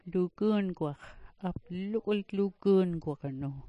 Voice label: "luguungwax", smalgyax